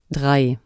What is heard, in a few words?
"drei" German